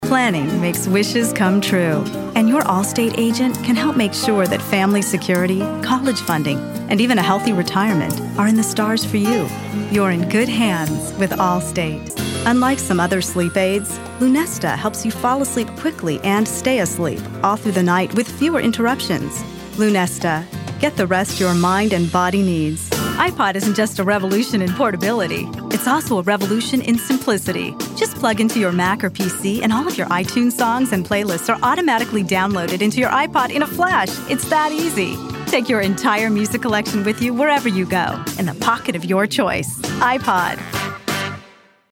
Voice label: 30s Female Commercial